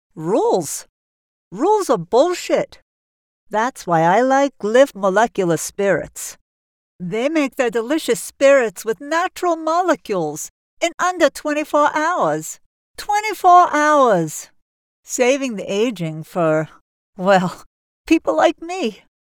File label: radio spot; Whiskey